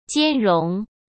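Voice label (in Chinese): "兼容", Mandarin Chinese; hsk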